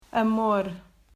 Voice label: "Amor", Portuguese